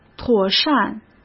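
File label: "妥善", Mandarin Chinese; hsk; chinese-flashcards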